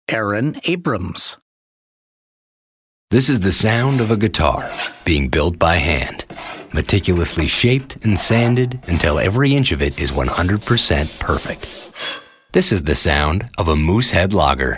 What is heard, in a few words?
commercial; Innovative LA